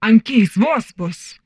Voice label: humanoid female; Stellaris; humanoids